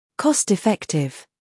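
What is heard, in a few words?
"humor", British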